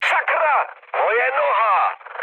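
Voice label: damaged pilot, Czech, mood med; speech; War Thunder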